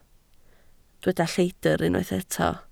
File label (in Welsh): "lleidr", Welsh